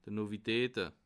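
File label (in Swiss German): "novitate", Bas Rhin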